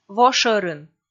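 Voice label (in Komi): "во шӧрын", Komi, female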